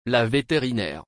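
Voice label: "La vétérinaire", French; دامپزشک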